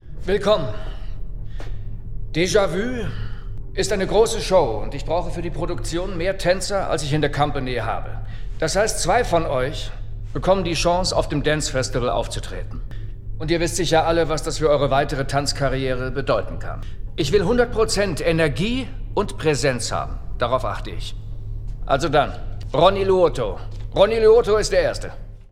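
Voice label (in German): Synchron, Sprecher, Männer; DanceBrothers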